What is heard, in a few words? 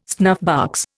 "snuffbox", Indian